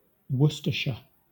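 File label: "Worcestershire", British English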